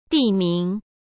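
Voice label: "地名", Mandarin Chinese; chinese flashcards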